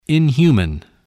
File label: "inhuman", spelling voice